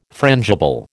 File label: "frangible", American